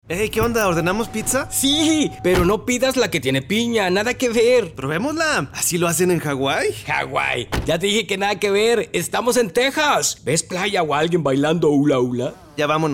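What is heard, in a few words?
Radio, Spanish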